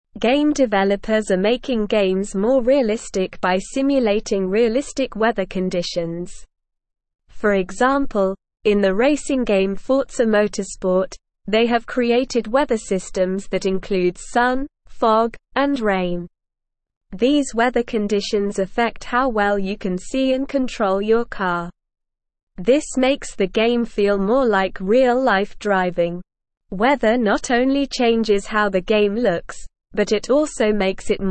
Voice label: English Newsroom Upper Intermediate SLOW Reading; Realistic Weather in Games Enhancing Immersion and